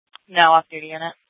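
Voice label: Police Department Dispatch, scanner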